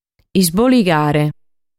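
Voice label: "Isboligare", Sardinian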